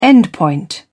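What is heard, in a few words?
"endpoint", British English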